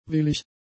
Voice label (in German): "willig", German; adjectives